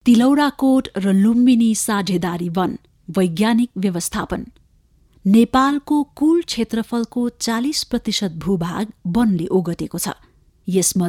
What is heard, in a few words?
NEPALI